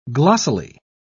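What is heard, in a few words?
"glossily", English, British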